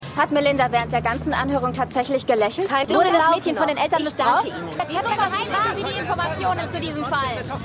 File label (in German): Reporterin, Stimmproben; Law & Order